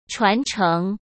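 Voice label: "传承", Mandarin Chinese; chinese-flashcards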